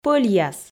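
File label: "пӧльяс" Komi, female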